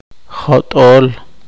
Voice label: poqomchi